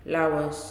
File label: "Come", Nalik